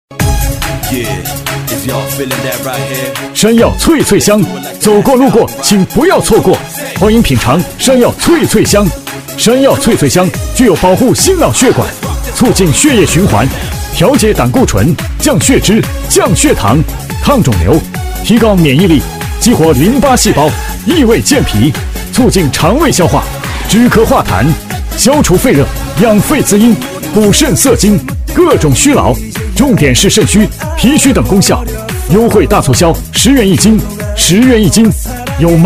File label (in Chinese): 激情促销男; 山药脆脆香欢迎品尝